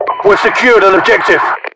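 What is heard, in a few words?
secured objective, UK; english; voiceovers; mpvoice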